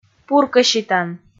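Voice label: "пуркӧситан", Komi, female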